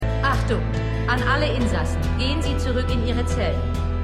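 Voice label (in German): Gefängnisdurchsage; Extrapolations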